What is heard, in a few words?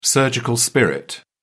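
"surgical spirit", British English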